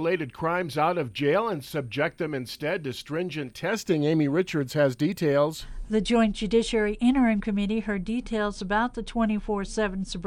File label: wyoming radio news